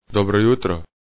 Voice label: "dobro jutro", Croatian